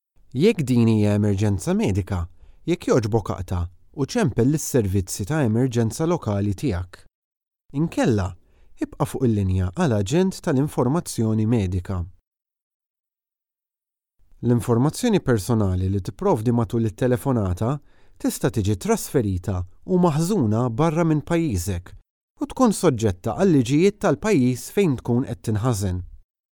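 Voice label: Maltese male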